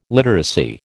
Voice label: "literacy", American

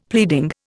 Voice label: "pleading", Indian; Dictionary

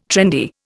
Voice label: "trendy", Indian

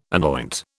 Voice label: "anoint", British

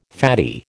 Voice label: "faddy", American

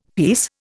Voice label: "pease", Indian; Dictionary

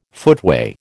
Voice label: "footway", American

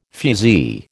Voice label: "fusee", American

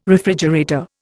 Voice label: "refrigerator", Indian

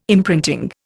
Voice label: "imprinting", Indian

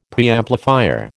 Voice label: "Preamplifier", American

